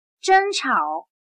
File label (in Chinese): "争吵", chino